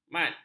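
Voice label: "mat", Malay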